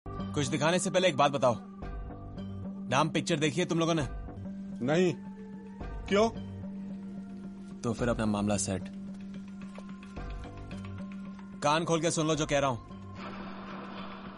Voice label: dialogue hindi

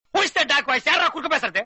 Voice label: tamil comedy dialogue

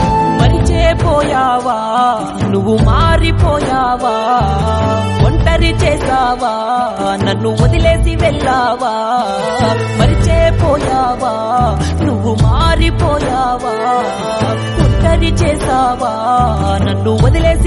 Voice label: birthday telugu dialogue

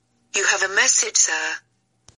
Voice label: posh lady call

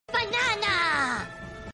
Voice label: banana minion notification

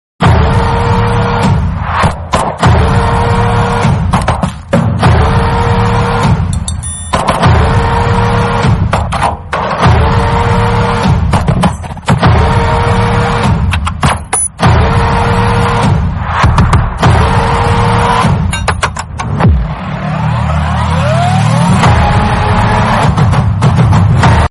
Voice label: dialogue bgm; veeraraghavan; beast

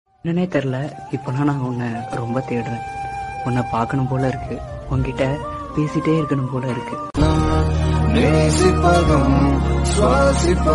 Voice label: tamil love voice

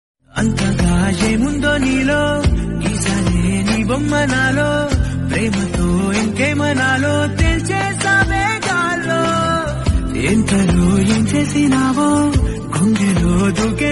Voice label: telugu romantic dialogue

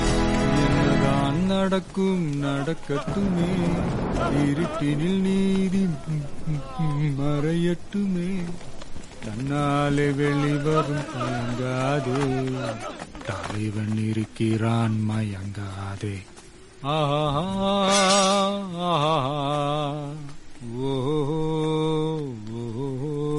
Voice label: dialogue tamil; bigil; bigil rayappan; thalapathyvijay

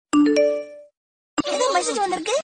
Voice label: notification tamil; Shinchan

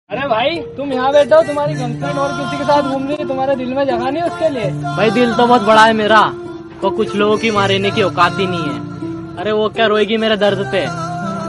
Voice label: sad shayari and poetry

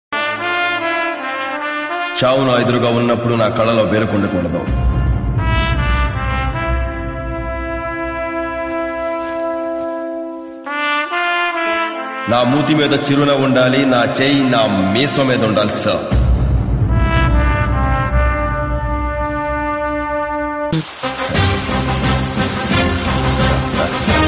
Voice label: dialogues telugu; ravi teja

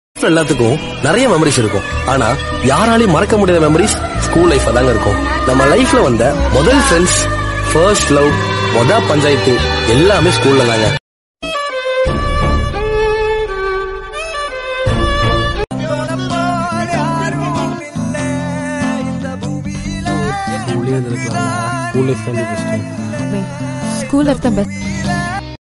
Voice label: dialogue in tamil

